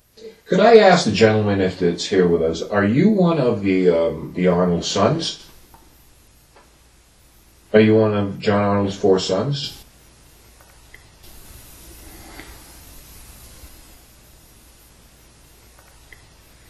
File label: Basement - male response - yes I am